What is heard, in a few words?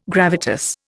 "gravitas", Indian